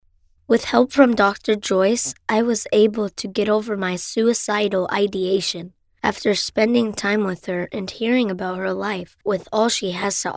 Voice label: Young Student Testimonial